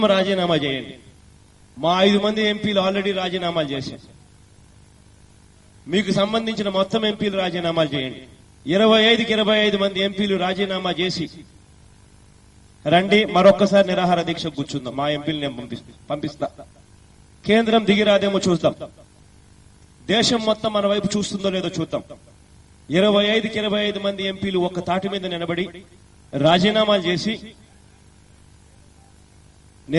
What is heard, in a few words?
Voice Ringtone; Y S Jagan